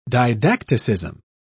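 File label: "didacticism", British English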